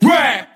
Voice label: "rah", voice; ooh ahh